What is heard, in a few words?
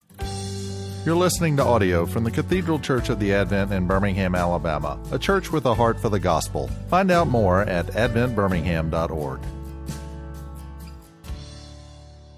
Adult Ed